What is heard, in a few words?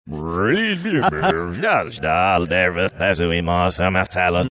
saying the last time i saw him backwards talking gibberish backmasked